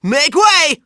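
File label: "make way!" male voice; sonic; vc roger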